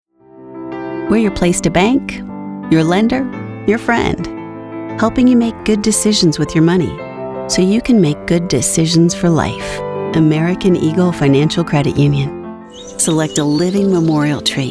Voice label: Commercials

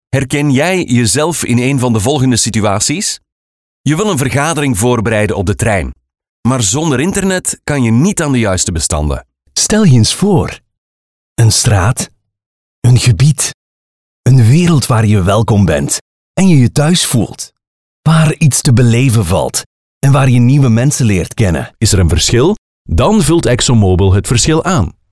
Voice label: Flemish Narration

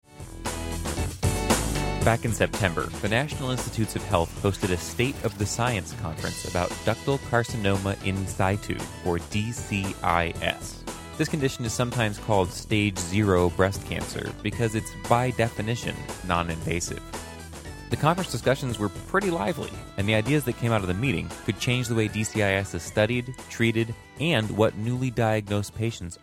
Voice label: Podcast

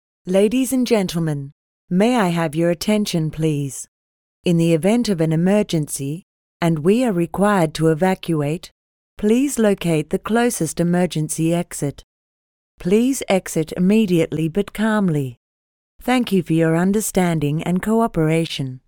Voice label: Public Service Live Announcement

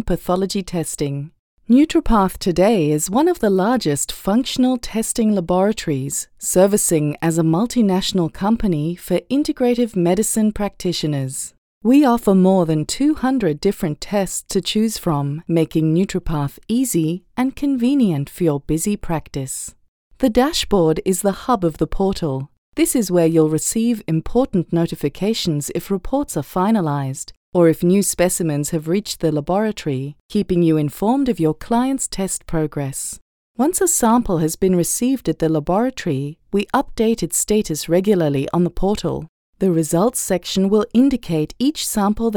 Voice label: Medical Narration

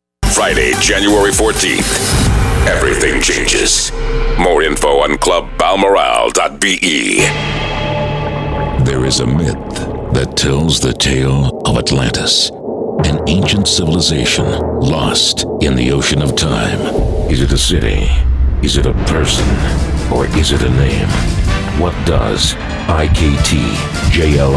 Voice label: Trailers

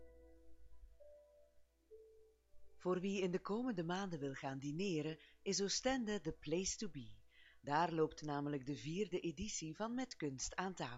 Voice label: Dutch audioguide; Ensor

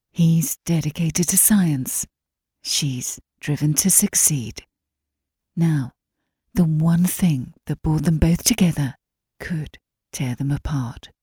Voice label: Movie Trailer; Twister

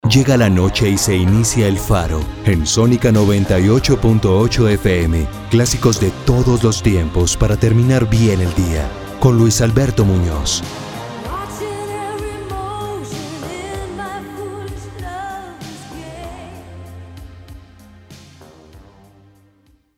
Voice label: radio

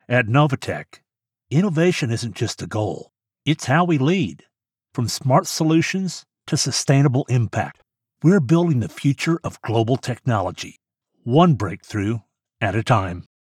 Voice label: Corporate Narration

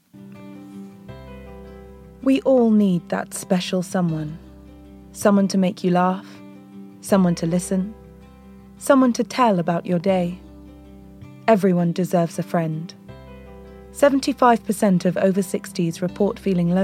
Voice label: Commercial Charity Sympathetic

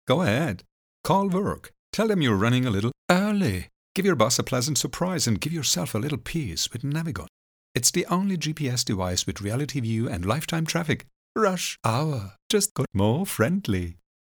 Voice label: BRIT NAVI

